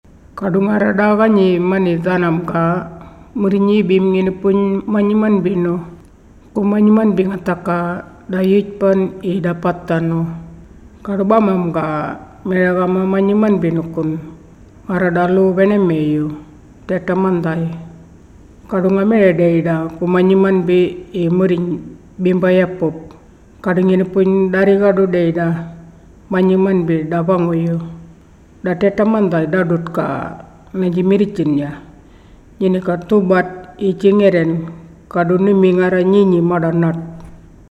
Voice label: Murrinhpatha